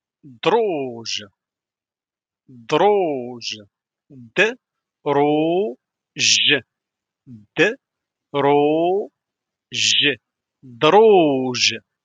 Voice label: stairs in moroccan arabic darija vocabulary